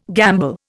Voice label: "gamble", Indian

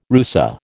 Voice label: "rusa", American

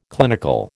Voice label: "clinical", American

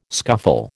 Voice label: "scuffle", American

